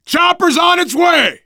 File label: radio used chopper, survivor voice, biker; Left 4 Dead 2; left4dead2 dlc3